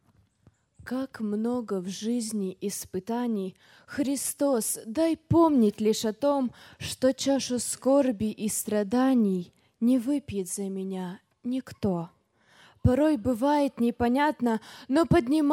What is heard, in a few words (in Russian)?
Декламация; Кавказ - молодёжный лагерь; утро